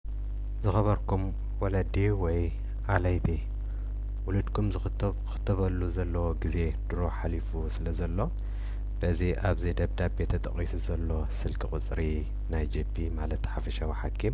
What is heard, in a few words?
Tigrinya Audio